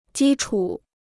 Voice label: "基础", Chinese

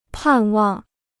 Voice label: "盼望", Chinese

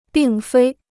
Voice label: "并非", Chinese

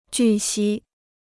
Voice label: "据悉", Chinese

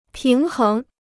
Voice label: "平衡", Chinese

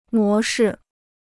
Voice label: "模式", Chinese

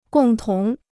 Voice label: "共同", Chinese

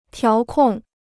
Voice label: "调控", Chinese

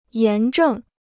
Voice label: "炎症", Chinese

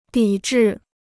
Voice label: "抵制", Chinese

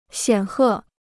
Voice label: "显赫", Chinese

